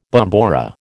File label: "bombora", American